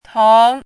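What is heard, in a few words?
"tong2", Mandarin pinyin syllable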